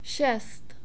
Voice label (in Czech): "šest", Czech; numbers